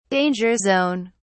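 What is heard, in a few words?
"danger zone", British English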